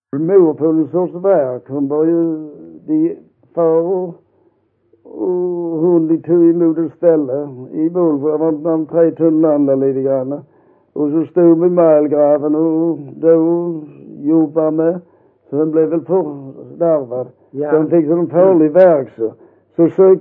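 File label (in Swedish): Vollsjö Skåne, dialekter, svenska sagor; Folkminnen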